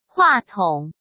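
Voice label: "话筒", Mandarin Chinese; chinese-flashcards; hsk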